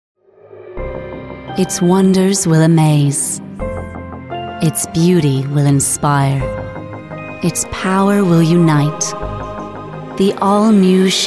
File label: advert; seaworld demo